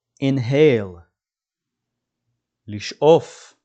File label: "inhale" – "לשאוף", dictionary